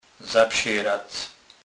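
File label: "zapserac", Kurpie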